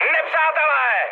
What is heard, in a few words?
enemy detected, speech cze, mood high; War Thunder